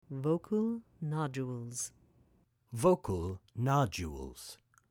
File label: Vocal Nodules